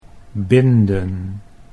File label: "binden", Dutch